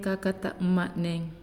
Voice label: "White", Naasioi

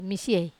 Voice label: "masiei", Wamesa

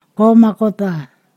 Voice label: "there far", Ponosakan

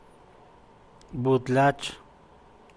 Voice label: female turkey; macuilxochitl

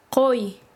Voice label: Chanka, quechua; dar

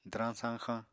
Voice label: "dwrañ-sañxañ", Apatani

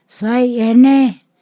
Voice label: "who", Ponosakan

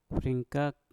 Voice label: "fringkak", Mpur; ingus

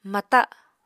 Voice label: "mata", Apatani

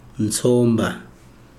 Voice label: "fish", Chikunda

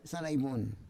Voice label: "sarai von", Roon

